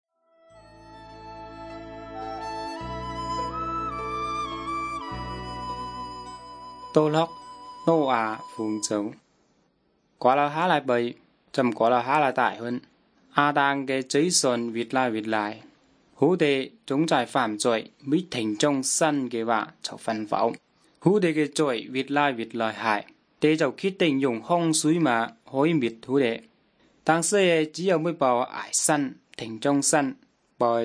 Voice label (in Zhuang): good news zhuang nanning fushu